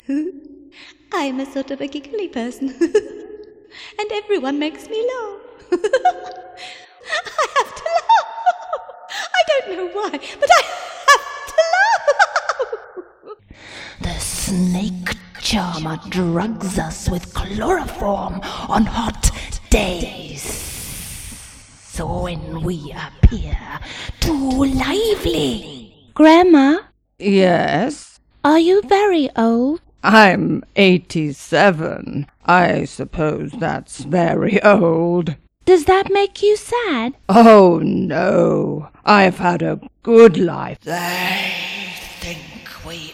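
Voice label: English UK, Characters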